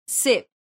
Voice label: "sep", esperanto

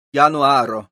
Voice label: "januaro", esperanto

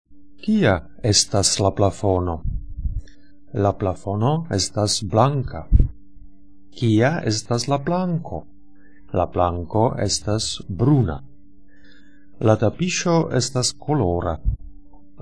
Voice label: text, esperanto

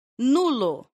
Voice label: "nulo", esperanto

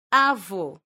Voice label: "avo", esperanto; leciono1

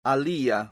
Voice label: "alia", esperanto

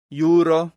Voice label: "juro", esperanto; leciono1

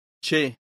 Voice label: "ĉe", esperanto

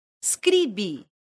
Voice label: "skribi", esperanto

